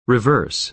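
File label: "reverse", American English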